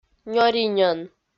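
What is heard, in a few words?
"нёриньӧн", Komi, female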